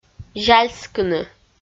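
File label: "зяльскыны", Komi, female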